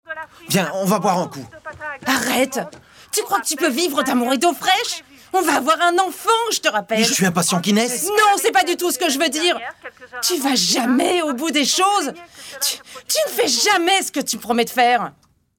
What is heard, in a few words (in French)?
Doublage